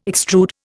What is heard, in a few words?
"extrude", Indian